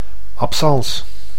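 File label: "absence", Dutch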